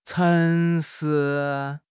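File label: "cheng2 shi2", Mandarin Chinese; HSK4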